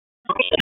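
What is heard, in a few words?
Raleigh Police Northeast District Tactical, p25; wake p25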